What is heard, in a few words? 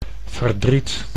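"verdriet", Dutch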